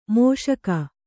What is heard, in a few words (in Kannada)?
"ಮುಷ್ಕ", Kannada